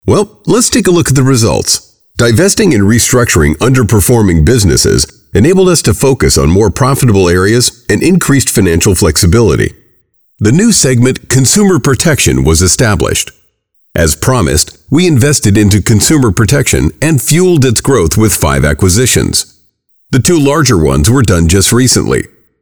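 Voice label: male, US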